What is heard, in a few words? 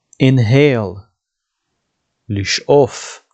"inhale" – "לשאוף", dictionary